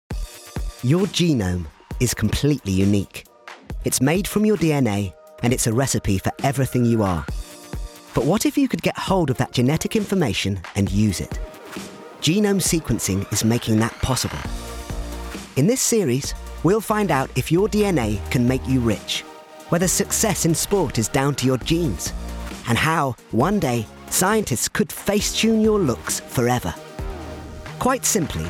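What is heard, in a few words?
documentary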